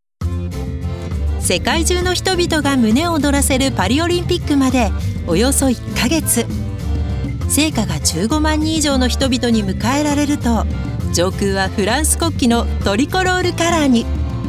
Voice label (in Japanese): 情報バラエティ 明るい